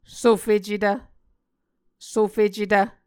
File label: "sofecetv", Muscogee